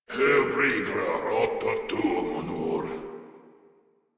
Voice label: Patrol, Grineer Male; Warframe